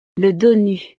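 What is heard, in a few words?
"le donut", French; snacks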